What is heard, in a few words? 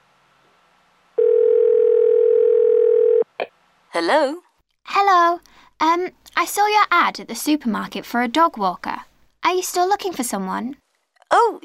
Conversation; clg voltaire saint florent sur cher